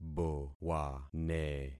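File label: "bowane", concatenated syllables; Affixing experiment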